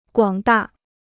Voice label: "广大", Chinese